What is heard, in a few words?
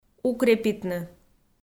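"укрепитны", Komi, female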